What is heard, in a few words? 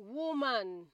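"woman", Nigerian Pidgin, female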